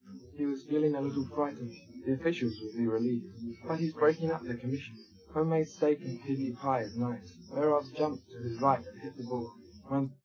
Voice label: male; SiSEC11; underdetermined